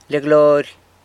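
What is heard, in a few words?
"Lia Glory", San Lucas Quiaviní Zapotec; Gloria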